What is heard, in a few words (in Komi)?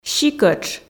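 "сикӧтш", Komi, female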